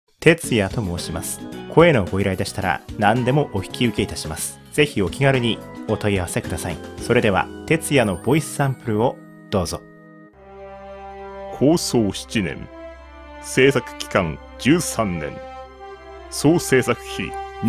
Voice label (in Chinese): 外籍日语男